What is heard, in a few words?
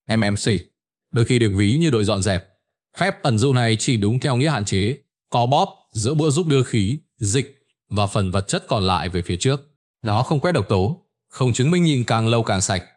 narration; Vi Sao Bung Ban Reo Khi Doi; StickerMan